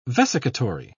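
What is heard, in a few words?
"vesicatory", British English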